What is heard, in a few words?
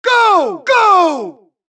supress, dialogue Allies; mohaa; Mission 2